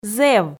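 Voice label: "зэв", Komi, female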